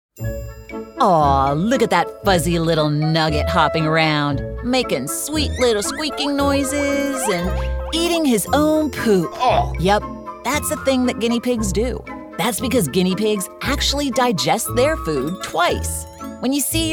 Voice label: E LEARNING, American Female Voice Actor